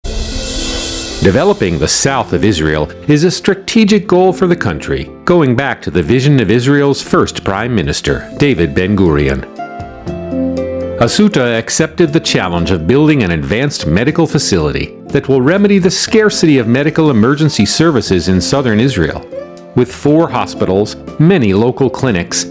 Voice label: english american promos

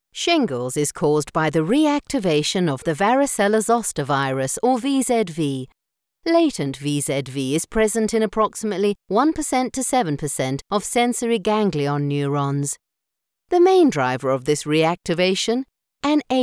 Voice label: english british medical narration

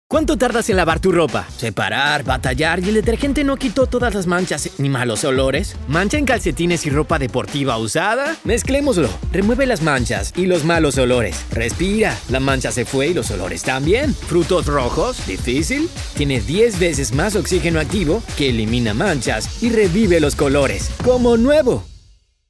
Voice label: Spanish Latin American e-learning

